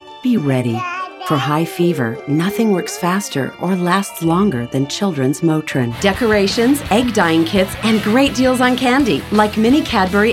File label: commercial